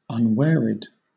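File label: "unwearied", British English; pronunciations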